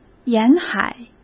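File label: "沿海", Mandarin Chinese; chinese flashcards; hsk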